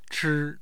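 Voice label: "chi", Chinese, first tone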